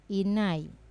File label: "nai", to disappear, Wamesa